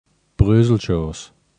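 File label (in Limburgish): "Breuzelschos", kerkraads dialect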